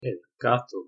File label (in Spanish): "el gato", espanol